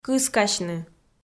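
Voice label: "кыскасьны", Komi, female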